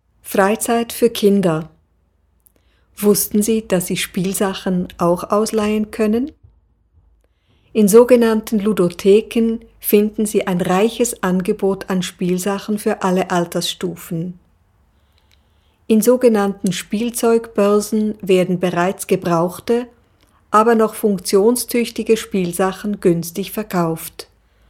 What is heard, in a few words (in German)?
deutsch